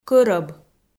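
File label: "кӧрӧб" Komi, female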